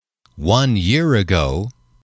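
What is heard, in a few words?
"one year ago", English, male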